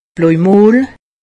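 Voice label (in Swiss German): "blojmoll", Alsatian; medecine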